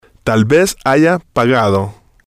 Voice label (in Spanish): "tal Vez Haya Pagado", spanish